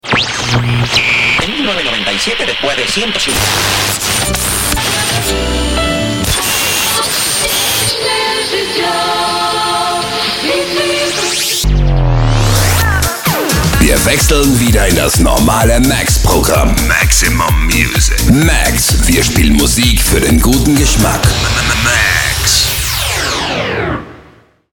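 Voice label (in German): Spot, Werbespots; Disco Max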